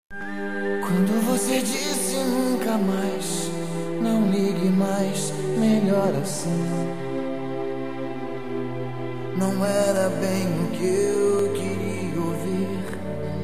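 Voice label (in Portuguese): Namorados Com Reconciliação, male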